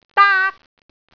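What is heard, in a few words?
"daak1", Cantonese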